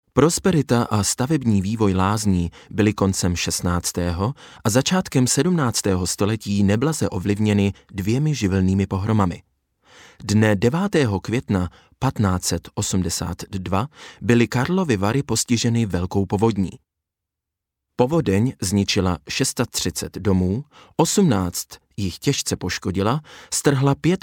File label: czech m narration